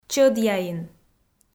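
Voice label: "чӧдъяин", Komi, female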